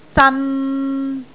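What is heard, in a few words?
"zan3", Cantonese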